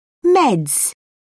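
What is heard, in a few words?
"meds", English, British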